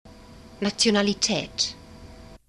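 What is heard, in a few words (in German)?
"nationalitaet", German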